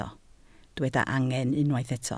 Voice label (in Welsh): "angen", Welsh